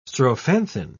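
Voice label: "strophanthin", British English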